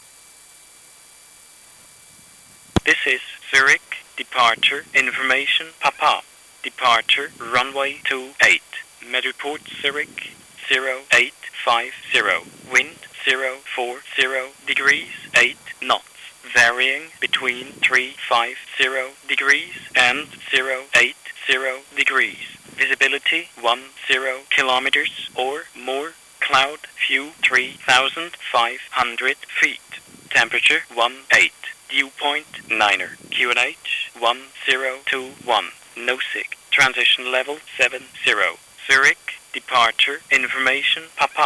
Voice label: ATIS; Zurich